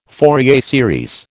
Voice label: "Fourier series", American